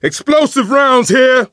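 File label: explosive ammo, male; survivor; coach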